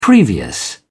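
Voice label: "previous", British English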